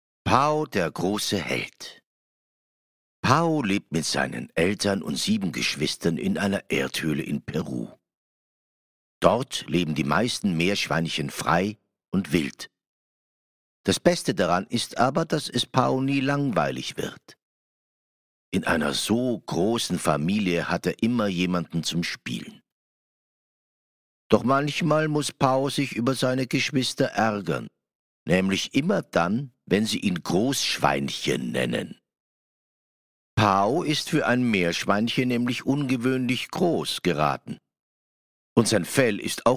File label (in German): Deutsch